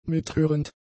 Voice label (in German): "mithörend", German; adjectives